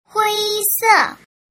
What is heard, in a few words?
"hui se", Mandarin